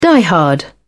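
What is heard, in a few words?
"diehard", British English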